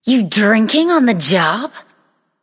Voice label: taunt directed; smite; hera; bacchus